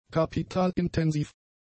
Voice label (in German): "kapitalintensiv", German; adjectives